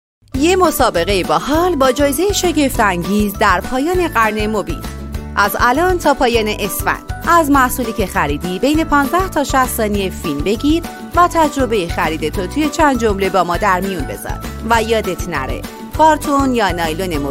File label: narrator